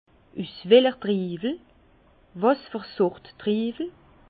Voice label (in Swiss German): "triiwel", Bas Rhin; vin; de quelle sorte de raisin